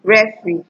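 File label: "referee", Nigerian Pidgin, female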